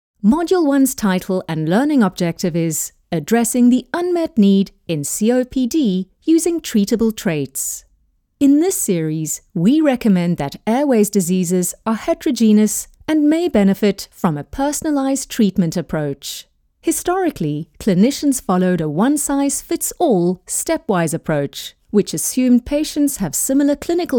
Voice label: english south african medical narration